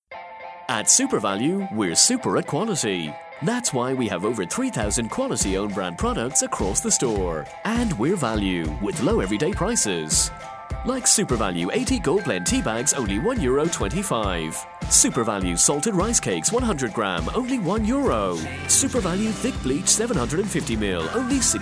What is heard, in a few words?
english mid atlantic commercial